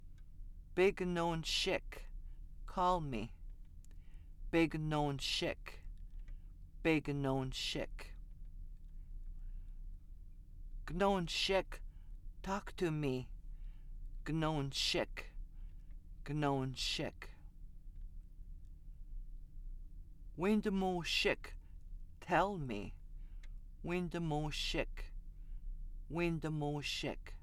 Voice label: conversational commands plural, Anishinabe